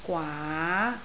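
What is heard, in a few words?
"gwaa2", Cantonese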